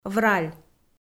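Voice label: "враль", Komi, female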